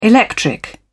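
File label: "electric", British English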